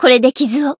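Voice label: heal, Japanese; niike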